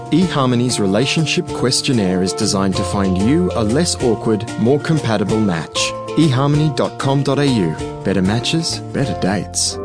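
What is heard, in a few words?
calm promotion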